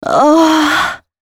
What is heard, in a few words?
female c#133, adlips; Mutekki Media; Dance Ultra Voice VOL-1